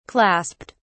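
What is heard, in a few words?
"clasped", British English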